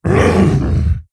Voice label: Wound Crit, Creature; Prophet Tharonja